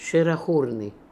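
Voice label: "Sherakhoorian", Russian, pronounciations; Fourth Way; Beelzebub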